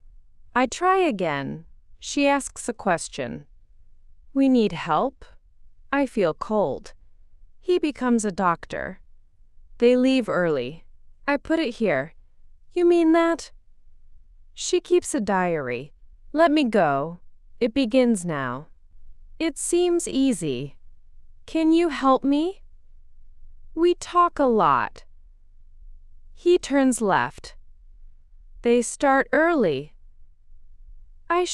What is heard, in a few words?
AI speech